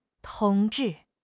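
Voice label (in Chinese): "同志", Chinese; HSK6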